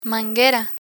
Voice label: "manguera", Spanish

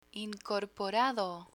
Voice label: "incorporado", Spanish

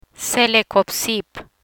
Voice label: "Celecoxib", Spanish; drugs; learn spanish

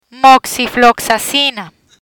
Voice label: "Moxifloxacina", Spanish

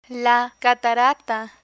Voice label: "catarata", Spanish

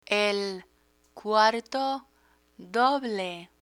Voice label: cuarto doble, Spanish

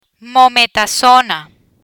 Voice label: "Mometasona", Spanish

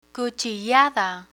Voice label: "cuchillada", Spanish; emergency vocabulary; learn spanish

